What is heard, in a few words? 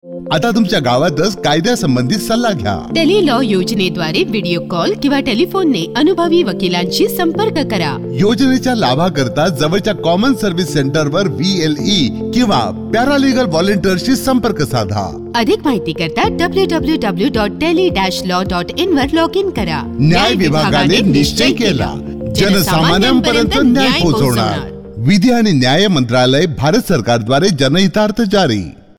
Marathi